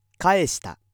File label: male